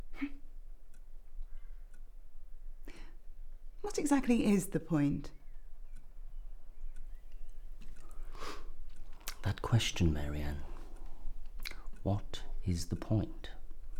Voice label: Dramatic

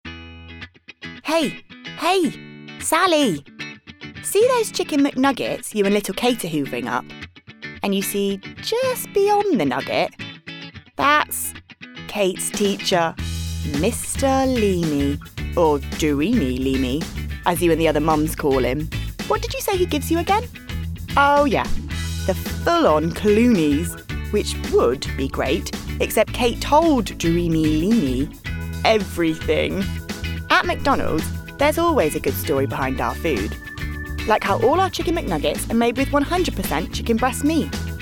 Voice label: Commercial and Doc Reel

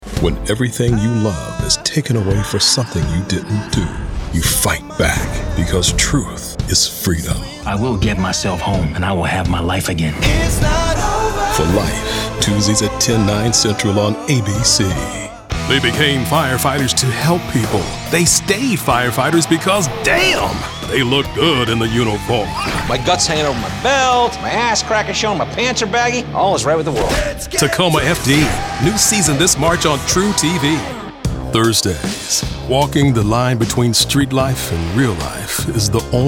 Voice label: Network Promo

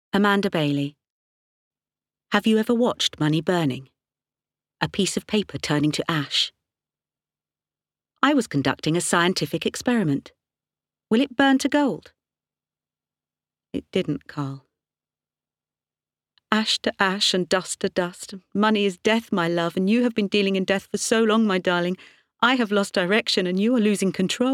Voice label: Drama

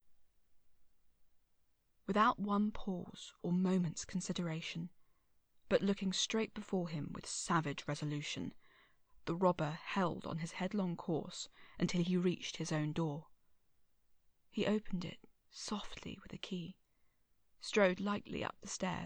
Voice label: Standard English; Oliver Twist

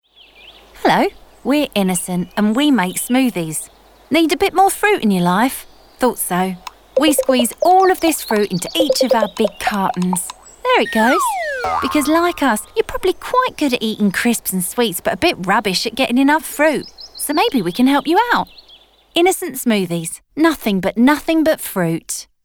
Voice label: innocent, Articulate, warm and engaging; voice-overs

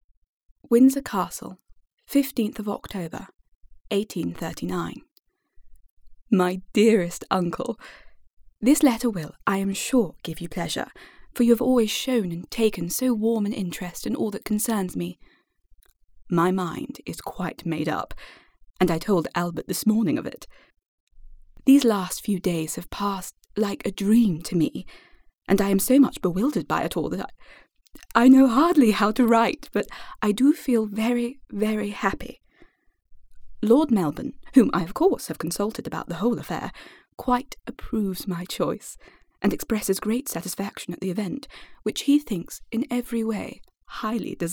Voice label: Heightened RP; Queen Victoria Letter 1839

